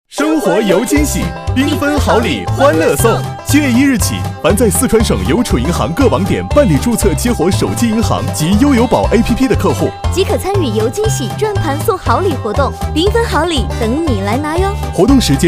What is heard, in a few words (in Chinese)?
广告 男女; 邮储银行